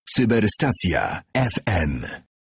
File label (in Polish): jingiel